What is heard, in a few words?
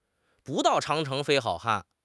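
"bu4 dao4 Chang2 cheng2 fei1 hao3 han4", Chinese; 汉语口语新时代-初级下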